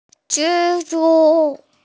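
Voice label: "zhi zhu" (spider), Mandarin Chinese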